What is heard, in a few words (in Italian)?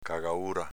"cagaura", dialetto veneto; s.f. escremento